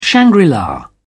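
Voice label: "shangri-la", British English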